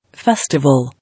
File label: "Festival", English